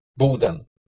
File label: "boden", Middle English